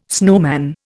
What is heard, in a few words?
"snowman", Indian